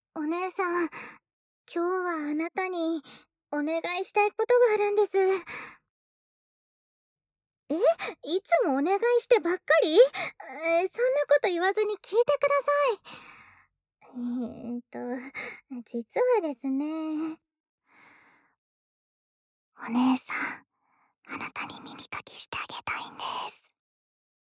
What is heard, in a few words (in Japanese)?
耳かきをさせてください 効果音なし, ASMR; 反転